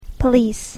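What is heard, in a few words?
"police", English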